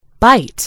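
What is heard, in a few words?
"bite", American English; gcompris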